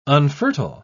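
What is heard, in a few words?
"unfertile", English, uk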